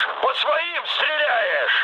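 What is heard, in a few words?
player friendly fire, mood med, Russian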